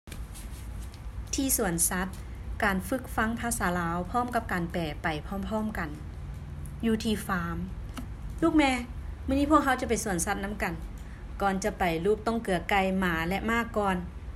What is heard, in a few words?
zoo trip, conversations